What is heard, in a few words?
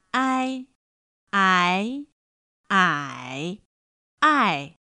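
"āi ái ǎi ài", Chinese; pinyin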